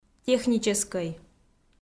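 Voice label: "техническӧй", Komi, female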